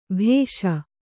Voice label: "ಭೇಷ್", Kannada